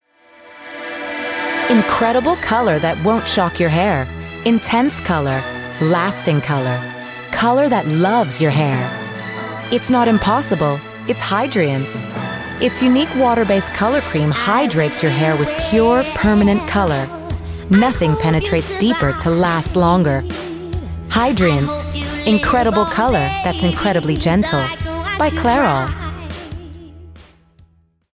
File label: advert; hydrience demo